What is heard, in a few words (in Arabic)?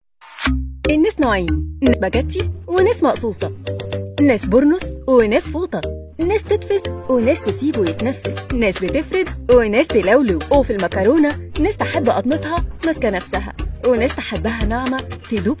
اعلان